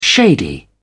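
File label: "shady", British English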